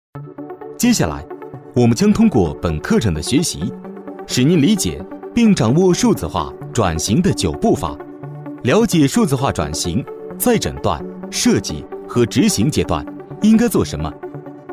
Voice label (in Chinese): 男 课件配音 年轻亲切自然; 年轻全能 自然诉说